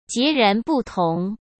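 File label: "截然不同" Mandarin Chinese; hsk; chinese-flashcards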